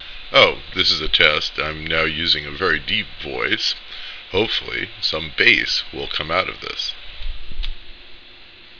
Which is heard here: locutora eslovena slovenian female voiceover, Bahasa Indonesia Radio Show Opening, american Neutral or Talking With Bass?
Talking With Bass